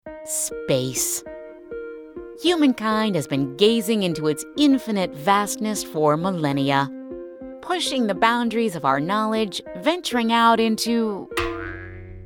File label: DOCUMENTARY NARRATION